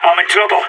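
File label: radio taking fire, voice; agents; sas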